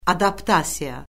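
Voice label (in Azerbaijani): "adaptasiya", Azerbaijani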